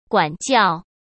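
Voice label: "管教", Mandarin Chinese; chinese flashcards; hsk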